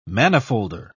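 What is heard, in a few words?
"manifolder", British English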